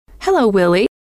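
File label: "hello willie", female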